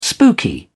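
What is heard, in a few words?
"spooky", British English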